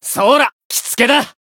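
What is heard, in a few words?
Voice heal, Japanese; shigure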